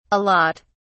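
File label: "a lot", British English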